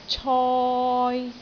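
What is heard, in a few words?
"coi3", Cantonese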